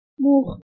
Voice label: "mux" Armenian